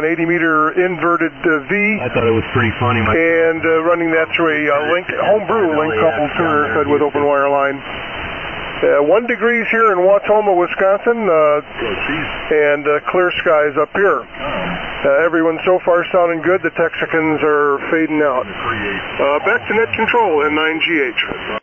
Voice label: Swan 350C & SB-200 Amp; Near Wautoma, Wi.